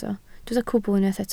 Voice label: "cwbl", Welsh